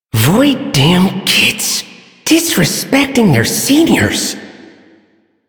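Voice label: Male Sedentary; Warframe; Duviri; Duviri Ambient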